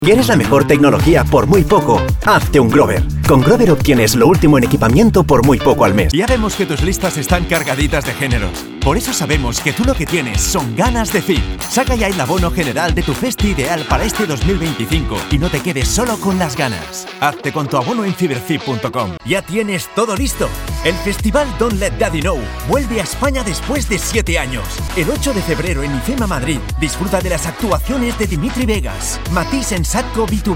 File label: spanish promos, spanish voice actors